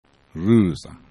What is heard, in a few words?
"ruza", esperanto